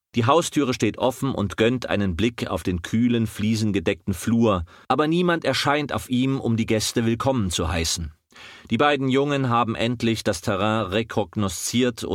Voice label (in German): Hörbuch; LOFT VOICES